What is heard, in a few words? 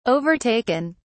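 "overtaken", English, British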